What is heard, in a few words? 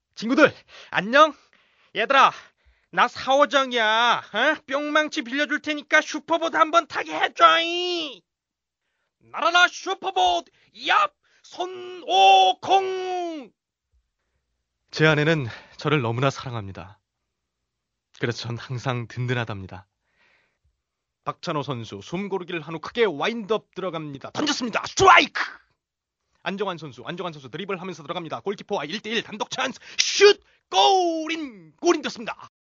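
Korean male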